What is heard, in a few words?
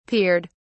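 "peered", English, British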